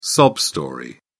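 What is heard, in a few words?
"sob story", British English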